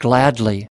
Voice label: "gladly", us